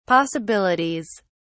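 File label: "possibilities", British English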